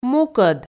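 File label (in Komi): "мукӧд", Komi, female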